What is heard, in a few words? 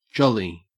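"jolly", Australian English; pronunciations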